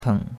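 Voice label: "teng3", Mandarin Chinese; Cours-de-Chinois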